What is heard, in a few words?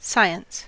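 "science", US English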